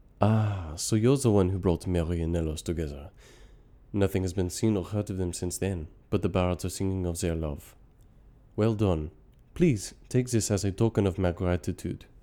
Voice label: breton french

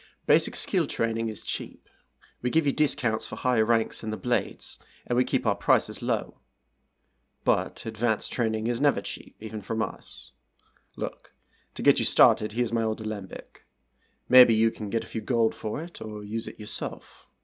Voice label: high elf male lowborn

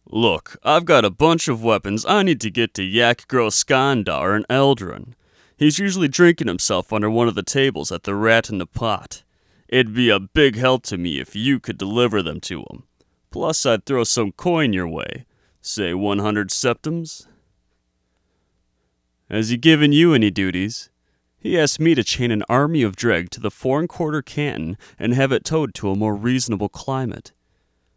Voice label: male imperial audition, voice acting

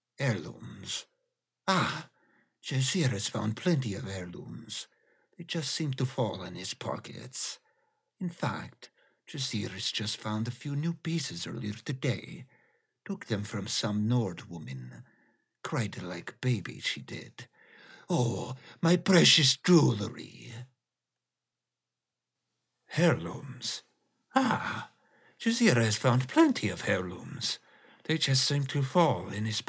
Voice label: khajiit audition; skywind

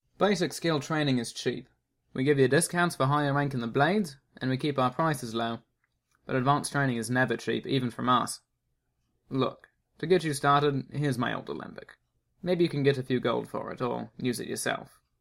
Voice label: high elf audition, voice acting

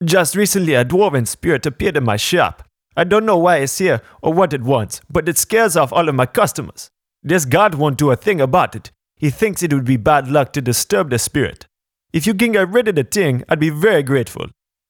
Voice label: male african

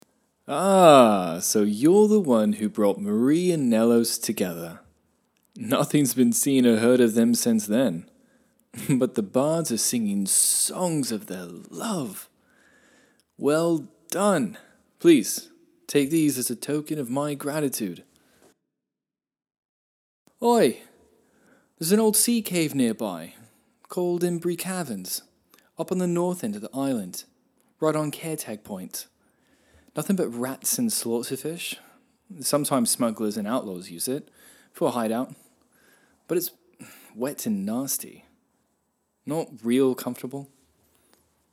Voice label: audition, breton; skywind

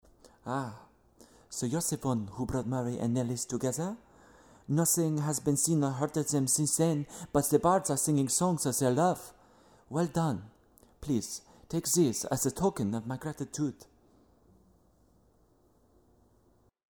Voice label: voice acting breton french; skywind

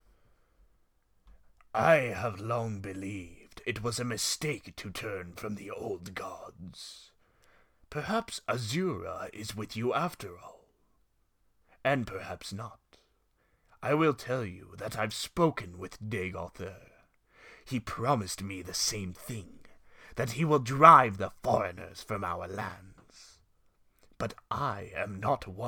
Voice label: dark elf male, voice auditions

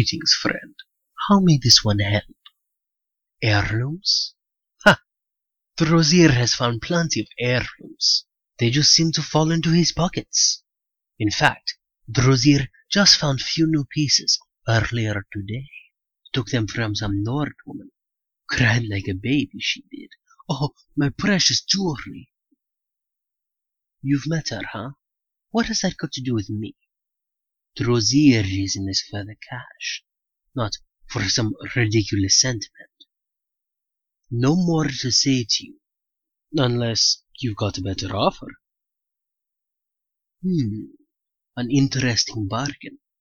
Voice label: male khajiit; drozhirr

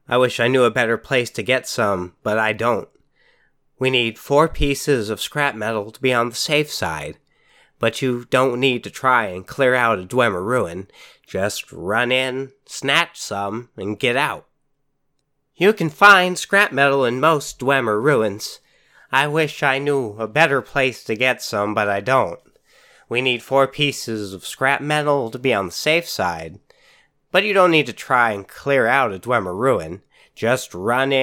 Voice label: wood elf, wood elf voice auditions

